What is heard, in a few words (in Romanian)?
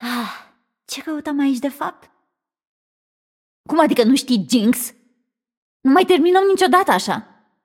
Room2 VO Idle State Timed, vo, ro-ro